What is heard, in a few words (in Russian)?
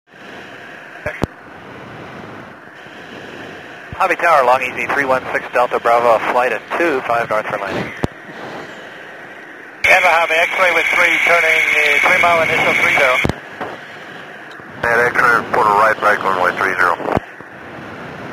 racija v kabine pilota